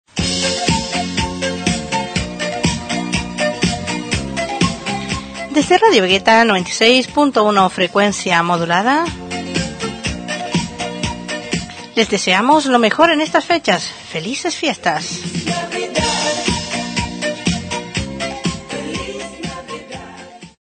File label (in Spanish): Indicativo Radio; Radio Vegueta